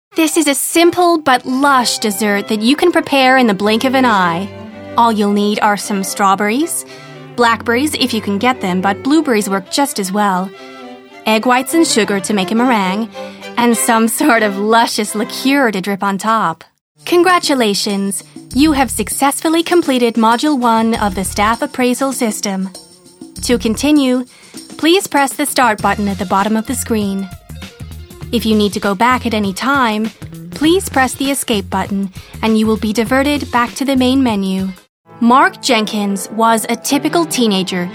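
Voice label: American Narration; US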